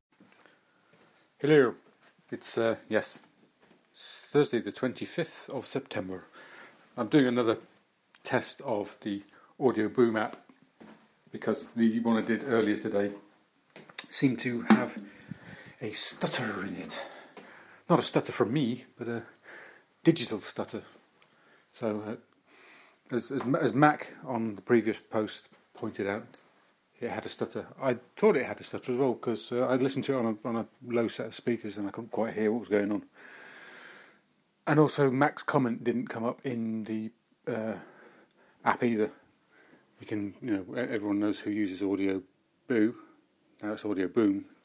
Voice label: stuttering